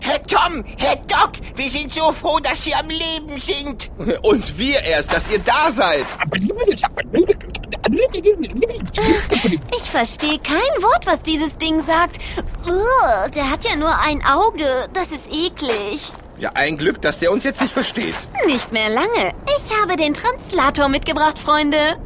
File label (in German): Hoerspiel